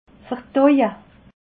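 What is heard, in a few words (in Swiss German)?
"verdaue", Alsatian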